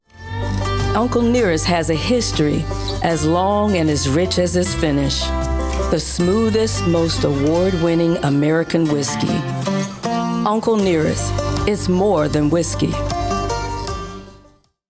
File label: Commercial; Uncle Nearest